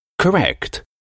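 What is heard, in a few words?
"correct", English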